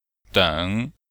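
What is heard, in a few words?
"deng", Chinese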